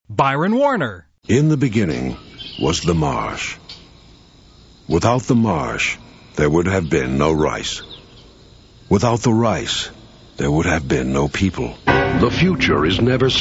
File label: male; Spotland Productions